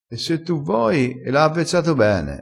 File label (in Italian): "avvezza", crespinese